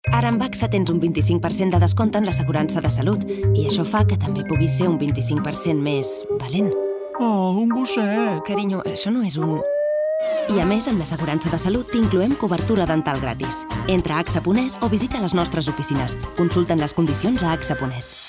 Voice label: female catalan insurance comercial